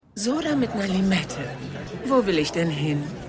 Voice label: Frau Bartresen; The Wire

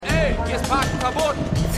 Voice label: Mann, Stimmproben; The Flight Attendant

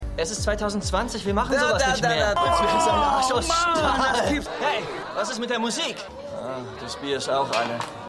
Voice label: Baertiger Student, Stimmproben

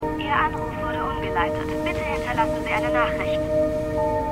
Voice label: Telefonstimme; The Good Doctor

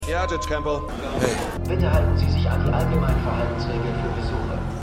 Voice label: Mann Opfer Durchsage; Stimmproben

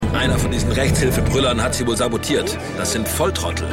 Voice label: Polizist, Stimmproben

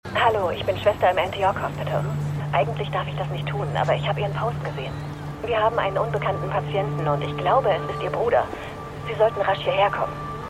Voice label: Krankenschwester Handy; The Morning Show

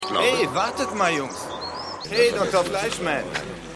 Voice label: Bewohner Mann; AusgerechnetAlaska

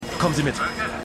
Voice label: Russischer Controller; Glasnost; For All Mankind

